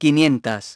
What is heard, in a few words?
"five hundreds", feminine; iOS 4